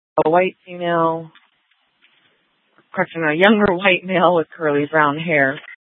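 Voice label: police dispatch, Scottsdale PD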